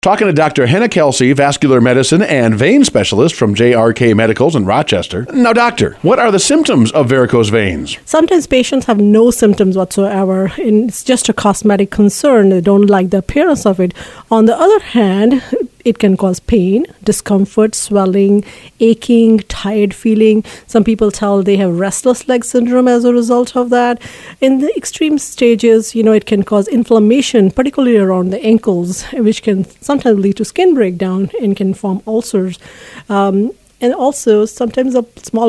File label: INTERVIEW; JRK Medicals